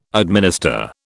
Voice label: "administer", British